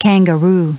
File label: "kangaroo" en-us recite